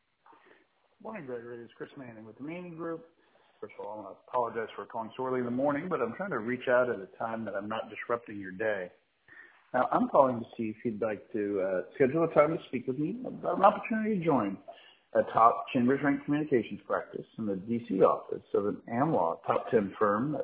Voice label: voicemail